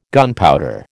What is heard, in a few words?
"gunpowder", American